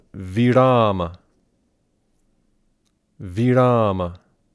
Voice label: "virAma", sanskrit